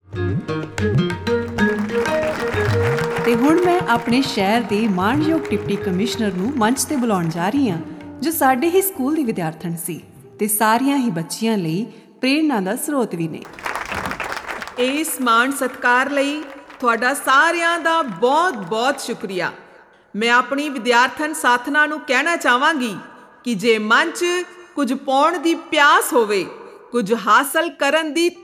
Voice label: radio spot on child marriage; Small Eyes Big Dreams; radio4child awards 2024